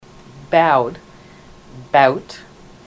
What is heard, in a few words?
bowed bout, ontario